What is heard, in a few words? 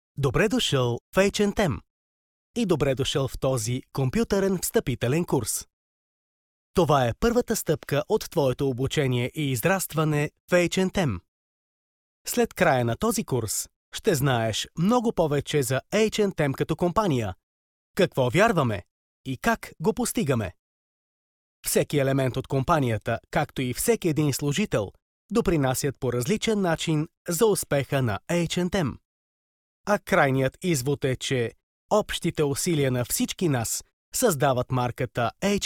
bulgarian m e-learning, voice; voice demos